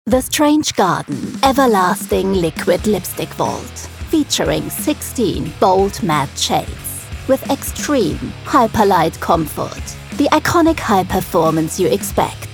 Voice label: Commercial; KAT VON D LIPSTICK